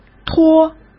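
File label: "托", Mandarin Chinese; chinese-flashcards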